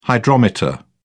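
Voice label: "hydrometer", British English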